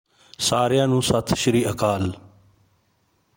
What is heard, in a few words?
Punjabi Greetings everyone